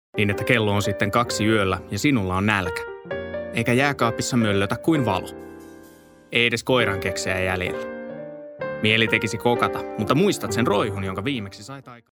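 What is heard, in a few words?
Finnish, male, narration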